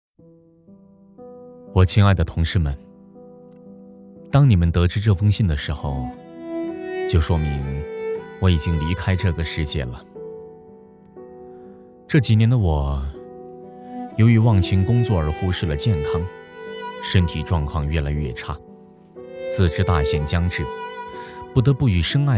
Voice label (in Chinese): 男国语, 旁白; 临别前的话